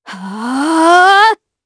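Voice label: Vox Casting, Japanese; kingsraid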